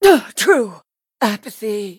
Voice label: hit, en